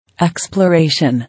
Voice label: "Exploration", English